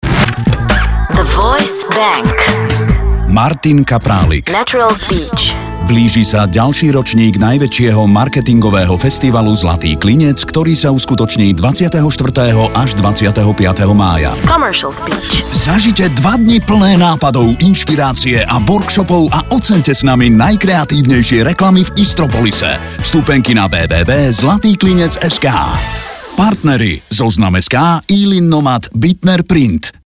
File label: voice bank radio